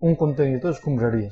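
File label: wheelie bin, Catalan; household; tuxpaint-stamps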